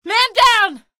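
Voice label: citizen buddy killed, female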